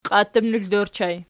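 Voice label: "qiattutnokl" ergative plural, Archi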